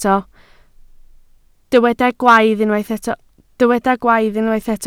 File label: "gwaith", Welsh